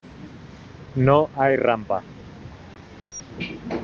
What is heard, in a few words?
Voice message